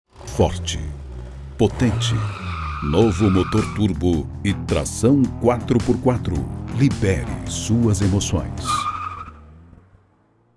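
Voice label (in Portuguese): Português - Brasileiro, Masculino, Clássico Sofisticado, Voz Madura; Voz Potente; Voz Padrão - Grave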